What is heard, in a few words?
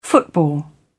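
"football", British English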